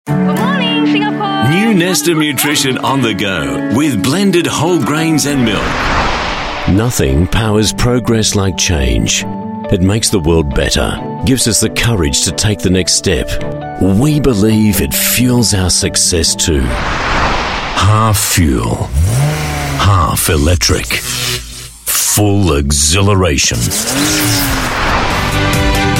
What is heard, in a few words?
AU Mid Atlantic English, English Australian Male